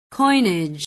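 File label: "coinage", English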